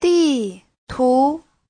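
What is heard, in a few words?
"地图", Chinese; (danh từ) bản đồ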